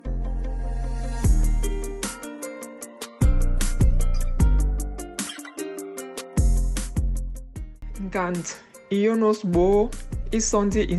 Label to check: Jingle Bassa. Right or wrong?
Right